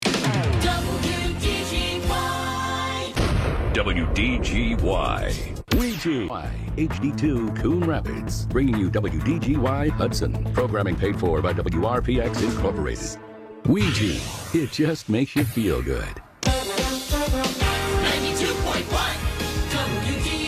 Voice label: sweepers; WDGY; aired on WDGY the evening of December 7, 2022; Various sweepers that aired on WDGY the evening of December 7, 2022.; Minnesota